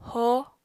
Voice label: "hoó", apatani